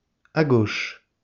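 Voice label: "à gauche", French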